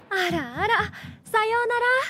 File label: notification sound ara ara sayonara; shinobu